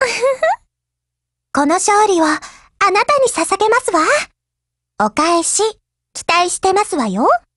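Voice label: WIN, Japanese, Voice; GF Alarm; M1911Mod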